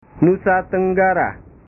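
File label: "nusa tenggara", Indonesian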